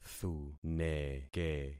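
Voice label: "thuneke", concatenated syllables; Affixing experiment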